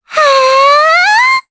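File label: Vox Casting Japanese; Luna; kings raid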